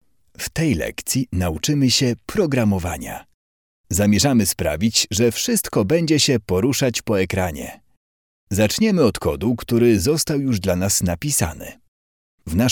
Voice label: Polish M Narration

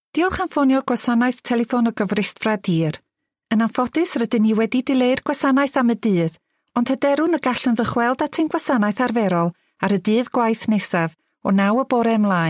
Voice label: Welsh F IVR; voice-over

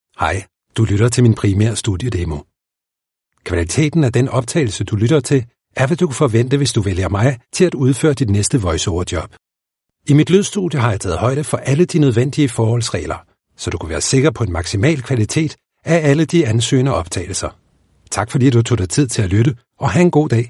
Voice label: Danish M Narration; voice over

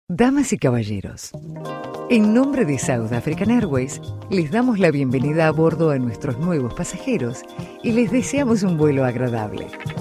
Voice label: Spanish LA corporate

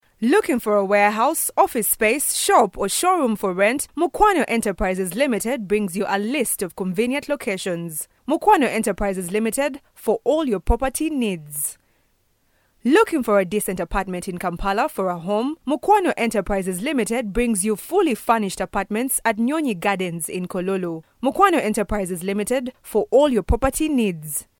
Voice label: East African English F Commercial; accent of africa

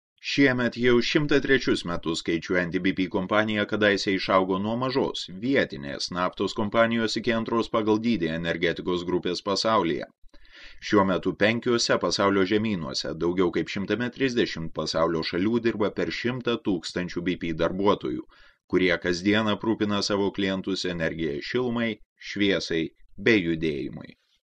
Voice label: Lithuanian M corp

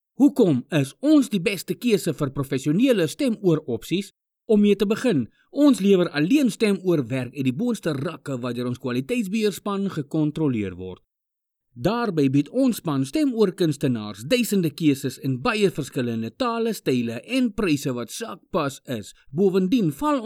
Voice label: Afrikaans M; voice over

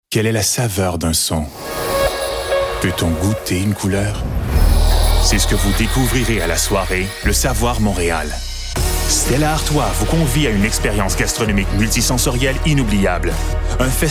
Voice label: French CN M Showreel, french canadian; voice over

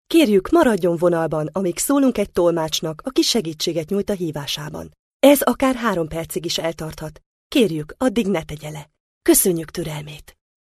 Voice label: Hungarian, F, Corporate

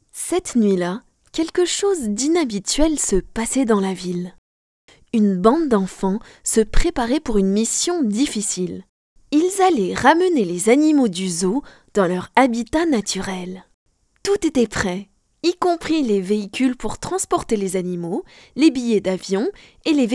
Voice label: FR, Kids Story Narration

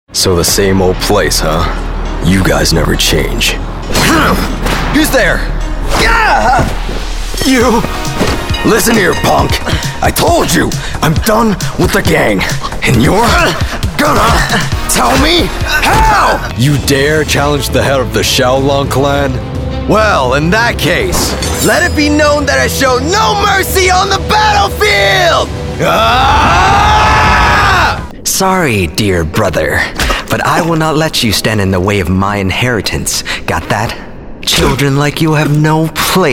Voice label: VIDEO GAME, chinese cantonese; voice over

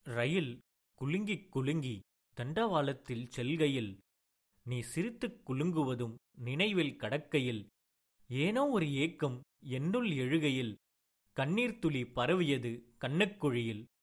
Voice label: Tamil M Narration; voice-over

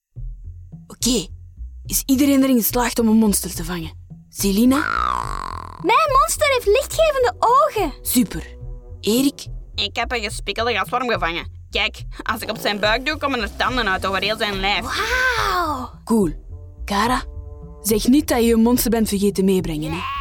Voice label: Flemish F Animation; voice over

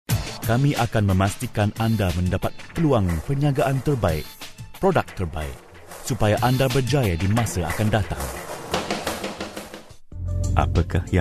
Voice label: Malay M Narration, malaysian

